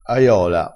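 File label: "aiola", Italian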